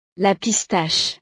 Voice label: "la pistache", frances; frutas

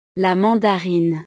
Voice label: "la mandarine", frances; frutas

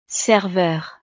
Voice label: "serveur", frances; profesiones

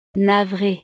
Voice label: "navree", frances; estados animo

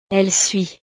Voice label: "elle suit", frances

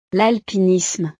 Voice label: "l alpinisme", frances; deportes

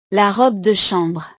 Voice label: "la robe de chambre", frances; ropa

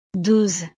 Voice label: "douze", frances

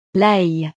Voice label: "l ail", frances; verduras

